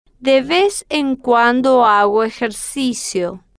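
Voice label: de Vez En Cuando Hago Ejercicio, slow, Spanish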